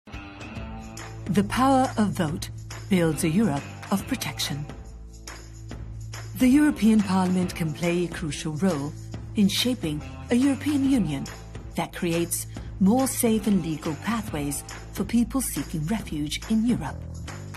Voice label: english british political ads